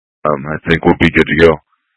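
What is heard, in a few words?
Incident Tactical 1, Scanner audio recording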